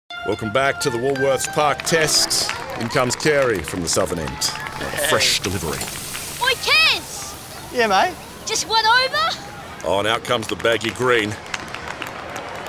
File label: voice of cricket commentator parody; WOOLWORTHS; Summer Of Cricket